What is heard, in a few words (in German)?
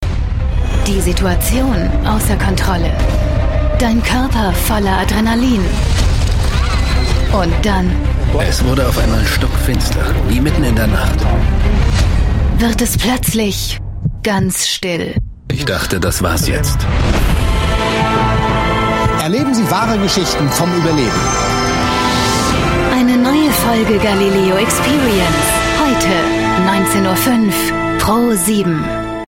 Sprecherin, Trailer; Galileo XPerience; Pro7